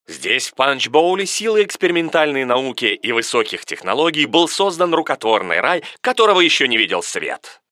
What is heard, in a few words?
narrator